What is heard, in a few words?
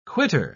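"quittor", English, uk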